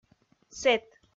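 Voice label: "сэт", Komi, female; kpv audio dict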